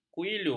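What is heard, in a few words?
"kuilu", Malay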